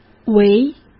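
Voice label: "围", Mandarin Chinese; hsk; chinese-flashcards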